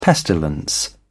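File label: "pestilence", British English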